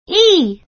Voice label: "e", letter; star catcher

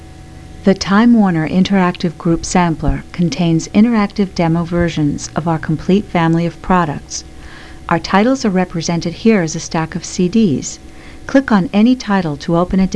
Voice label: NARRATOR

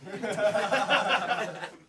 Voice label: sarcastic

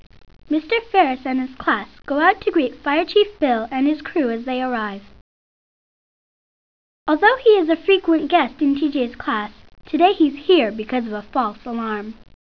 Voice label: Narrator; Scene 08

